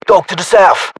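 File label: threat dog south, battlechatter, British; english; uk; localized english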